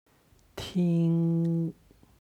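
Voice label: "ting", Chinese; 听; 聞く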